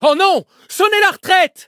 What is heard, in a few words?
defeat, fr; Cookie Run